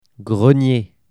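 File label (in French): "grenier", French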